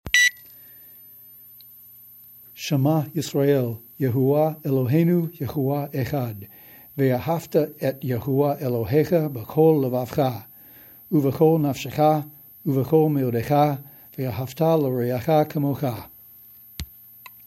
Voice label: shema in hebrew